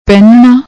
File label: "pehnana", Punjabi